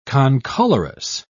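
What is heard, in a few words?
"concolorous", British English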